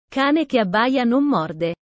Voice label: italian sayings about life